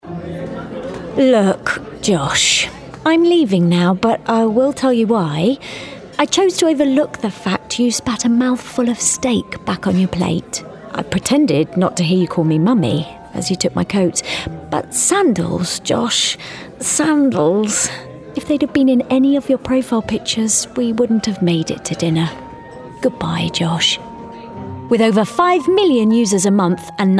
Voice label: Commercial; Match